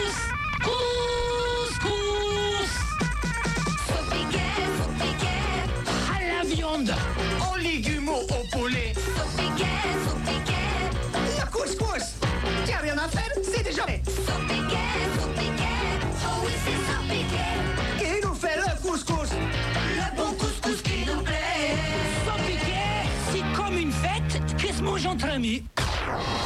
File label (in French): pub couscous